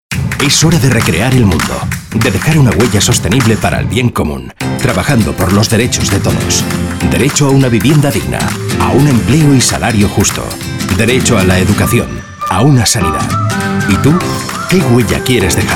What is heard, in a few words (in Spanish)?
cuña